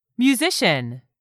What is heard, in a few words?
"musician", English; 3000 words